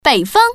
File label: woman; mahjong paohuzi; putonghua